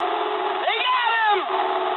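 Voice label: English aircraft destroyed mood med; War Thunder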